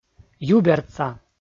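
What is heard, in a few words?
"юбердса", Komi, male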